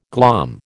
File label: "glom", American